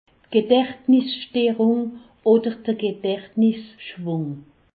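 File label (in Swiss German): "gedachtnissterung", Alsatian; medecine; trouble cognitif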